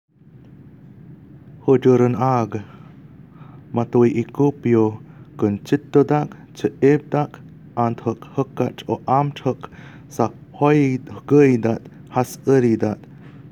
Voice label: Hejel eñ ag (Male Voice)